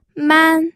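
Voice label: "man", first tone, pinyin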